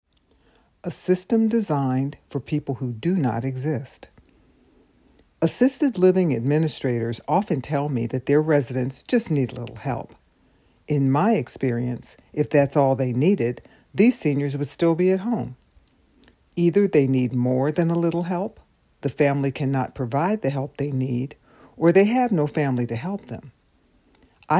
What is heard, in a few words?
Audiobooks non fiction